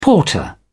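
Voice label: "porter", British English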